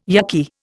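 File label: "yukky", Indian English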